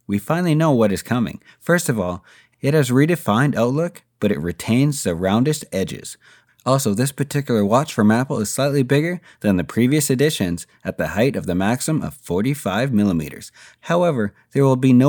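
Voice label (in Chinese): 外籍男英_外语_英式英语_适课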